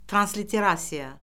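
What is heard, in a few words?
"transliterasiya", Azerbaijani; Orfoqrafiya Lüğəti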